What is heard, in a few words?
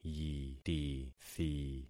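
"yitithi", concatenated syllables; Affixing experiment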